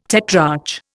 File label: "tetrarch", Indian